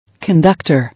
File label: "Conductor", English